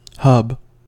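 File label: "hub", US English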